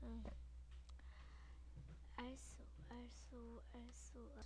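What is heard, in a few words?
"also", spoken word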